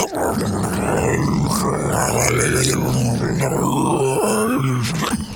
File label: Zombie Idle